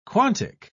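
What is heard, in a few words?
"quantic", English, British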